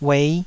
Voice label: "uei5", taiwanese